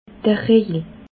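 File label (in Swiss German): "rejel", Alsatian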